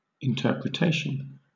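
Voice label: "interpretation", British English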